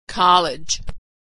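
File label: "college", American English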